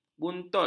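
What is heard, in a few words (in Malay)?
"buntut", Malay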